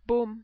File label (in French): "boum", French